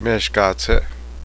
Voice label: hummingbird, Cheyenne